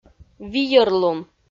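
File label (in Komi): "вийӧрлун", Komi, female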